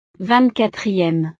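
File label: "ving quatrieme", French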